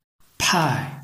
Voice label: "pai4", Chinese